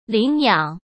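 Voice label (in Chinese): "领养", Mandarin Chinese; hsk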